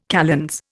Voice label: "kalends", Indian